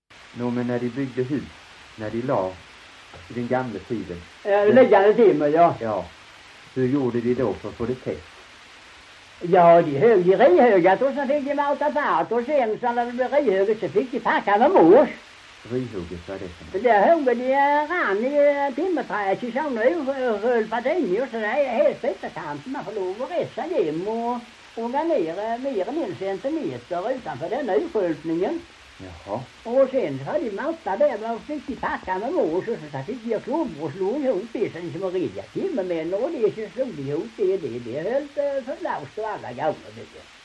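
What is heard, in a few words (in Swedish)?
Ramdala Blekinge, dialekter svenska sagor; Folkminnen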